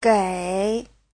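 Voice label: "gěi", Mandarin Chinese; 给; giới từ : cho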